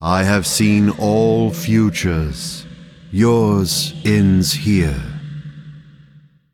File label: Male Constellar Play